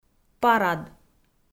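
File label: "парад", Komi, female; audio dict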